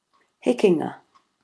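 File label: "hekenga", Maori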